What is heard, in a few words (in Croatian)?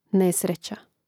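"nesreca", Hrvatski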